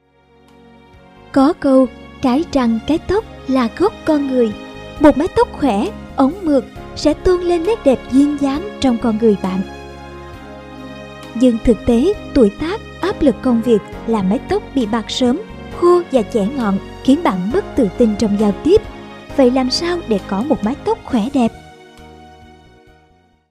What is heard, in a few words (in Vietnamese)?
Voice NU Mien nam